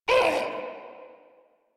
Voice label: Pain Ghoul Saw, en; Grineer Ghoul Sawman

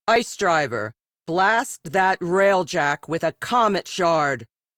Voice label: Captain Ice Driver Activate, Captain Female; Rail Jack

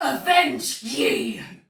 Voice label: See Ally Die, Soldier Female, Battle; Dialog; Barks; Sinecure

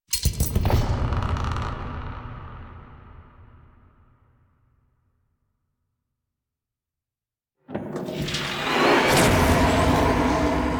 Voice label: Grandmother Tale Story; Warframe; Naberus; Dagath

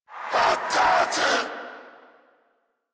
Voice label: Surprised; Dialog; en; Warframe; Zariman; Zariman Wraith

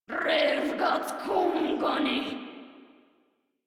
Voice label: Player Spotted Grineer Female, Barks, Battle

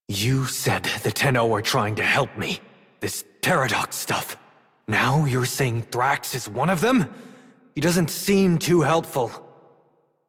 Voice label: Adult, en, Dialog; Cave; Warframe; Duviri Paradox Quest

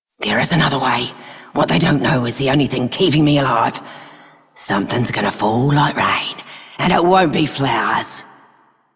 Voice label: Female Rebel, Female Two, en; Dialog; Warframe; Solaris Venus

